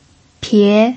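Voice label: "pie", second tone, Mandarin Chinese pinyin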